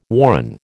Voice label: "warren", American